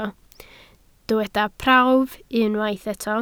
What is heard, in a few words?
"prawf", welsh